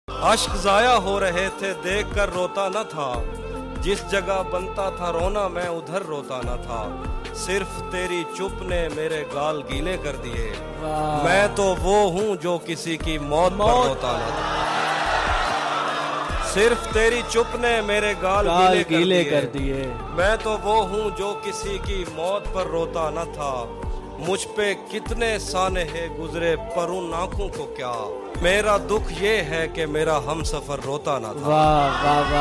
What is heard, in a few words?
Shayari Ringtone